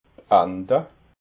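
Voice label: "anta", Alsatian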